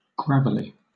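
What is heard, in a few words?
"gravelly", British English; pronunciations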